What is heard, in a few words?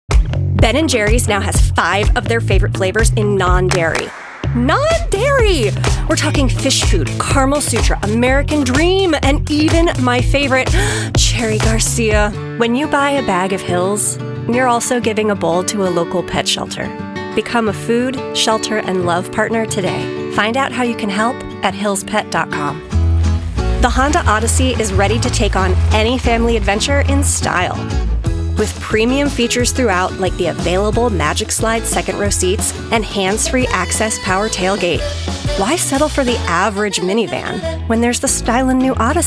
Commercial